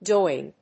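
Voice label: "doyen", English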